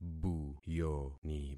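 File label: "buyoni", concatenated syllables; Affixing experiment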